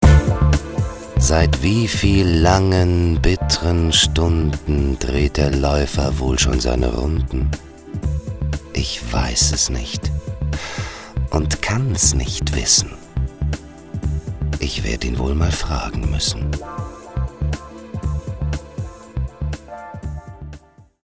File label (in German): Poem Der Laufer